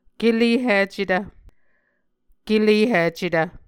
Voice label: "kelehicetv", Muscogee